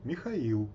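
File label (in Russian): "Михаил", Russian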